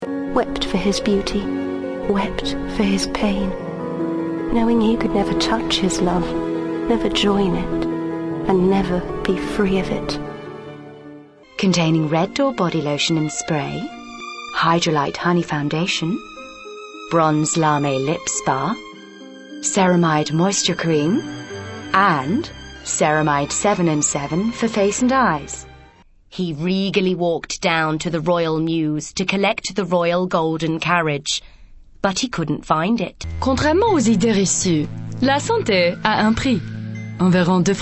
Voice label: narration